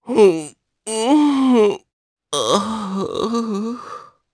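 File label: Vox Sad, Japanese; Oddy; kingsraid; voices heroes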